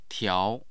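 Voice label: "tiao2", Mandarin Chinese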